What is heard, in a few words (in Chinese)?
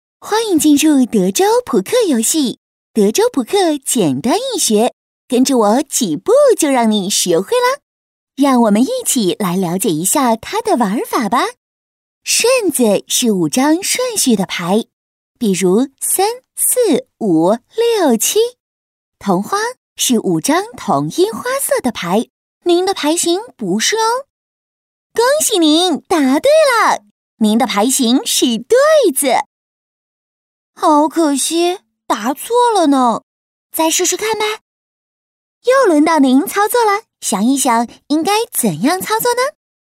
女 游戏 德州扑克